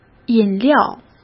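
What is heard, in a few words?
"饮料", Mandarin Chinese; hsk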